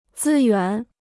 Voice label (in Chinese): "资源", Chinese